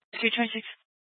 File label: Police Department Dispatch